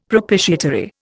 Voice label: "propitiatory", Indian; Dictionary

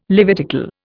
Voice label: "Levitical", Indian

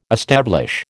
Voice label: "establish", American

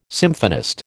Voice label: "symphonist", American

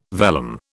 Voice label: "vellum", British

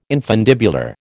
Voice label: "infundibular", American

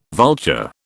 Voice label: "vulture", British

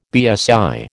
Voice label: "BSI", American

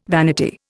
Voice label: "vanity", Indian

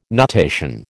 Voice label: "nutation", American

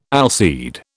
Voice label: "allseed", British

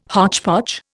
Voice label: "hotchpotch", Indian

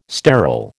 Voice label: "sterol", American

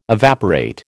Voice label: "evaporate", American

